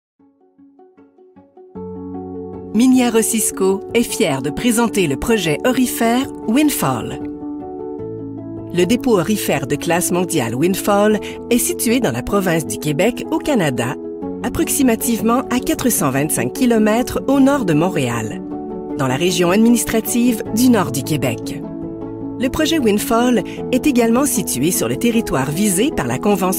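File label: Canadian French, Corporate; GoLocalise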